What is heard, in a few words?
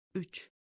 three in Turkish